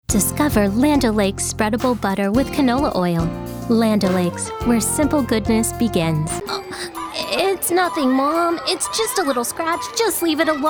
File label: VoiceOver Commercials